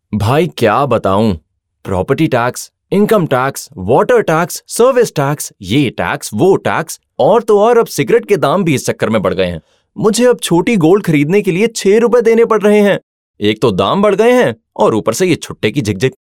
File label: Friend Character Hindi, male voice